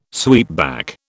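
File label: "sweepback", British English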